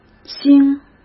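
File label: "腥", Mandarin Chinese; hsk; chinese-flashcards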